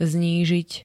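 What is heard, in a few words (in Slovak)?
"znížiť", Slovak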